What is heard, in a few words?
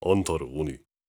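question short, voice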